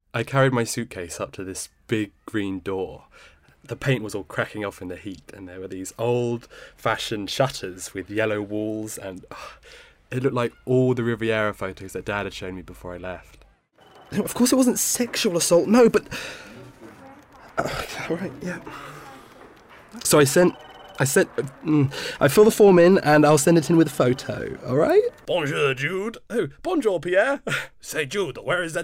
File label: drama